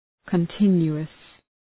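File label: "continuous", English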